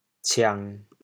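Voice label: "ciang1", Teochew, chaoyang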